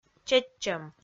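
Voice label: "чеччӧм", Komi-Zyrian, female; kpv audio dict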